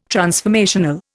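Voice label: "transformational", Indian English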